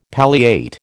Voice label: "palliate", American